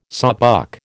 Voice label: "sawbuck", American

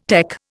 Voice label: "tec", Indian

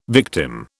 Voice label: "victim", British

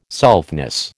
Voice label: "selfness", American

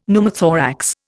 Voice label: "Pneumothorax", Indian; Dictionary

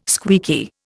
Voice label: "squeaky", Indian

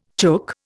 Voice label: "chook", Indian

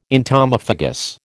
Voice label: "entomophagous", American

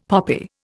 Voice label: "poppy", Indian; Dictionary

